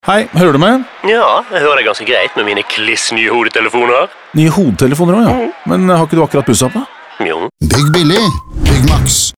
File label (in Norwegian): Radio Hodetelefoner; Byggmax